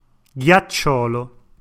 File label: "ghiacciolo", Italian